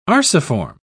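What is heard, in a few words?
"arciform", English, British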